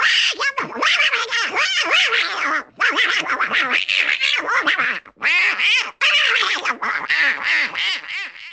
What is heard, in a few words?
donald duck raging